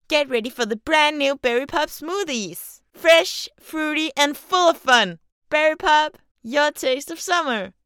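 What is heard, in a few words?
Danish, female, commercial, Happy Energy, English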